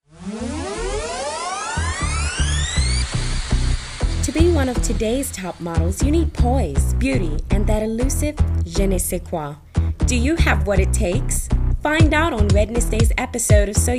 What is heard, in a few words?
Fashion Commercial Voice Over